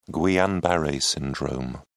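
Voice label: "guillain-barre syndrome", British English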